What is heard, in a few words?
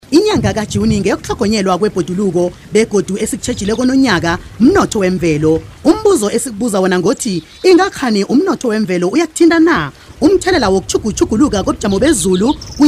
ndebele; IsiNdebele; National Environment Month 2012